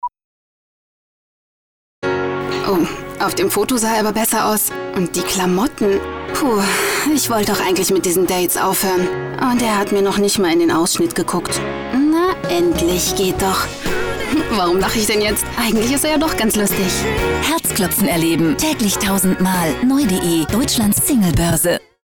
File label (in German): werbung, deutsch frauen